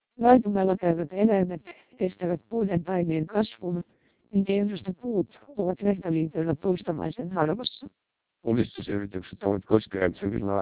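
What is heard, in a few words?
twelp 400 Finnish, vocoders lowrate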